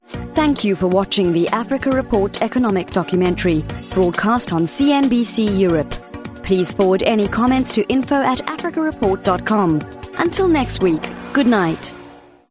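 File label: female, South African English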